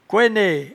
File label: "couennee", patois jura